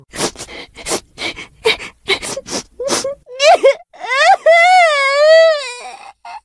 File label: english; elsword; choro